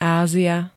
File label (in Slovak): "Ázia", Slovenské